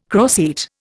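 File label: "croceate", Indian English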